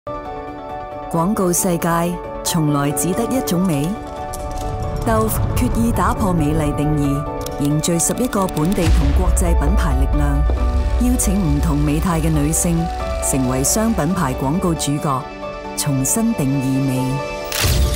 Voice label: 粵語女聲; Dove凝聚品牌力量 打破美麗定義

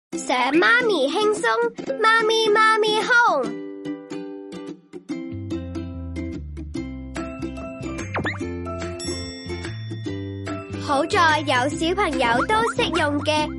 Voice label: 女童; Pacific免安裝蒸氣消毒洗碗機Mami Home

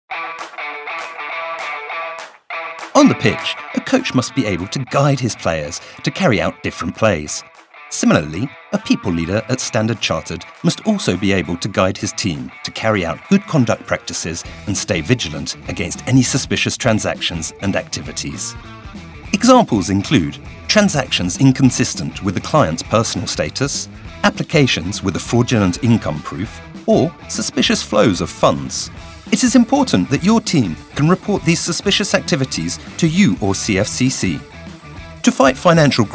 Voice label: 英語男聲, English; SCB

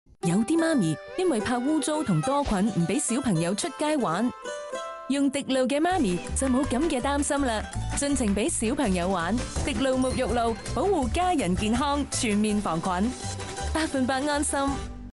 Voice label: 粵語女聲; FCV; 滴露沐浴露 x 滴露媽媽

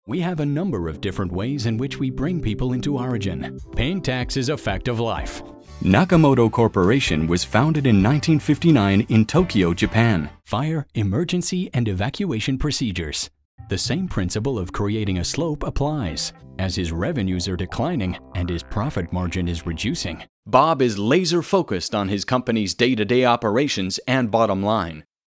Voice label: 英語男聲 Corporate

